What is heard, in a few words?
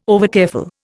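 "overcareful", Indian English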